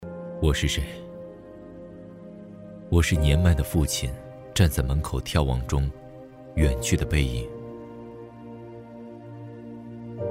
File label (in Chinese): 独白内心独白